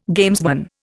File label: "gamesman", Indian